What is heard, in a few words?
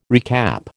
"recap", American